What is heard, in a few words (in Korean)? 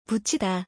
"무치다", Korean; Korean words about cooking